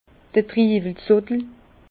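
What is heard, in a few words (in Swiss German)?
"zottel", Alsace Bas Rhin; vin; grappe de raisin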